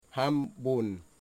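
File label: "snake", Wamut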